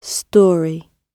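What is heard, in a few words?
"story", British English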